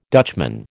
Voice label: "Dutchman", American